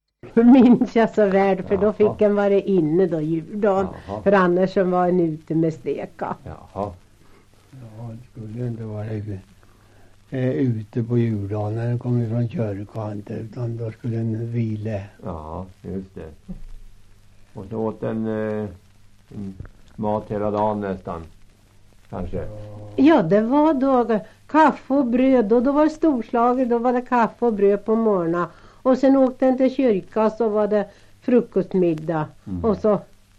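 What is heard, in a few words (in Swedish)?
Tystberga Bälinge Södermanland, dialekter; Folkminnen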